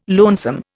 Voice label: "lonesome", Indian

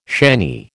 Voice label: "shanny", American

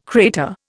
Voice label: "crater", Indian

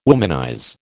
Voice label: "womanize", American

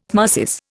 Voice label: "tmesis", Indian

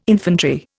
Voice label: "infantry", Indian

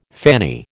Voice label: "fanny", American

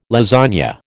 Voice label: "lasagne", American

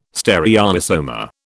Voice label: "stereoisomer", British

